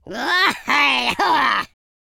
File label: angry, Viking; speech